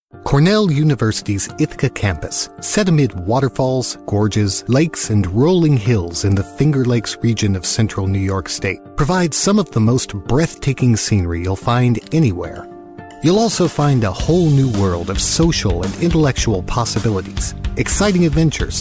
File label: Narration